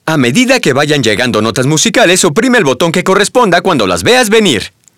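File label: music madness voice, Spanish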